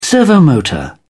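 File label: "servomotor", British English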